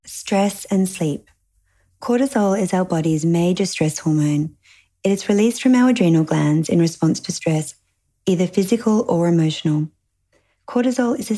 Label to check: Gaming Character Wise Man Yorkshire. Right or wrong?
Wrong